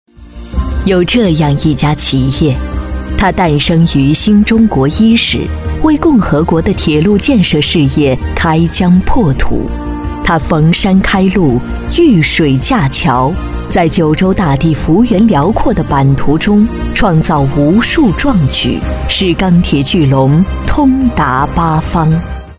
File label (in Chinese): 宣传片 企业 浑厚; 女